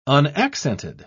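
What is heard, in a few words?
"unaccented", British English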